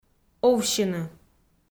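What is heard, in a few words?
"овсьыны" Komi-Zyrian, female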